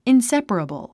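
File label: "inseparable", English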